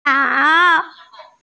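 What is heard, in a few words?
"niao3", Mandarin Chinese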